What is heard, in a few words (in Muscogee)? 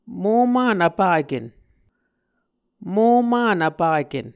"moman vpaken", Muscogee